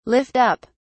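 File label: "lift up", British English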